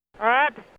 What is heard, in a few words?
old lady what